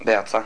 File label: "beatza", Basque